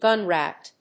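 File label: "Gunn rat", English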